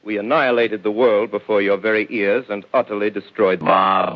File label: we anihillated world, male spoken word; MALE MISC